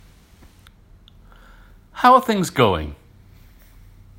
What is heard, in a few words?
How are things going UK